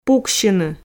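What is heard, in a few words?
"пуксьыны", Komi, female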